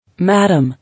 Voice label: "Madam", English